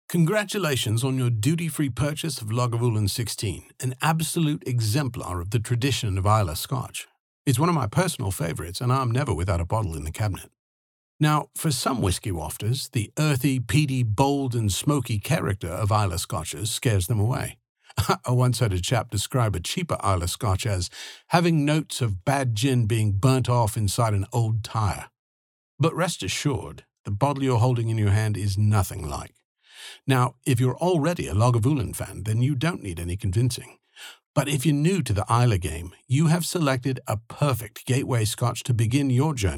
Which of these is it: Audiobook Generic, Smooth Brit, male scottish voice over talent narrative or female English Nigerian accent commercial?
Smooth Brit